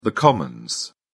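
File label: "commons", British English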